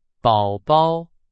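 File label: Chinese; hsk4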